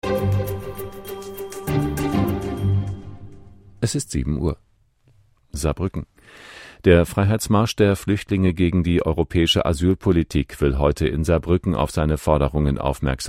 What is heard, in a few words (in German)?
Nachrichten